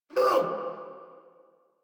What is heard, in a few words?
Pain; Warframe; Eidolon Skiff Grineer